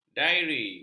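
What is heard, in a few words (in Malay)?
"diari", Malay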